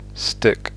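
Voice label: "stick", English; fonetica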